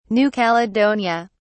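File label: "new caledonia", British English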